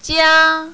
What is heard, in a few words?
"jia1", mandarin, female; 家